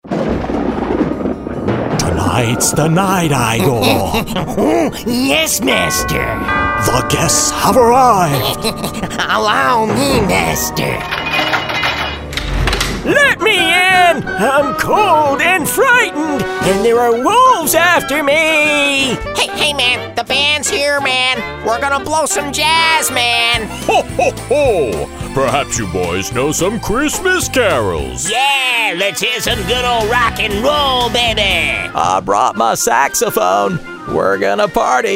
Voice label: Character, characters male